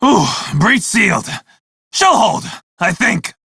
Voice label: Crew Fix Breach, Male; Dialog; en; Warframe; Railjack Crew; Red Veil